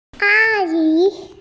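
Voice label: "a1yi2", Mandarin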